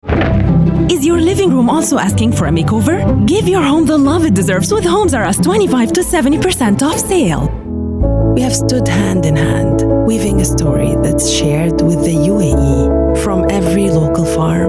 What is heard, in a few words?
English Commercials VO